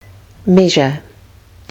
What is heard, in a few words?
"measure", kiwi english